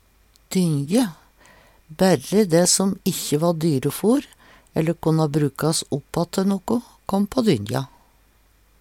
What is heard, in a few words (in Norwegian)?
"dynje", Numedalsmål